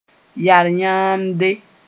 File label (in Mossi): "yar-yãamde", moore